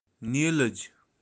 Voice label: "nilij niluj" mallard, kashmiri; kashmiri birds

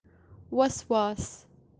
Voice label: "waswaas", kashmiri fear

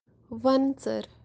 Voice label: "wan-tser" rock bunting kashmiri; kashmiri birds

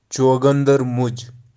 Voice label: "chogandar muj", kashmiri

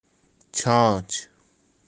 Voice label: "chaanch", Kashmiri

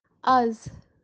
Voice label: "az" kashmiri today

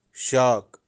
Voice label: "shaak", kashmiri; kashmiri birds

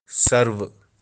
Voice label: "sarvi", kashmiri

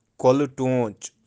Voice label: "Koli-toonch" kingfisher, birds of kashmir

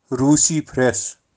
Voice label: "russi phrast", kashmiri

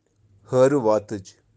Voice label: "Hariwaatij", kashmiri; birds of kashmir

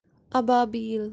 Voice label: "ababeel" "katij" kashmiri swallow

